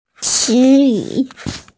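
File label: "ci2 yu3", Mandarin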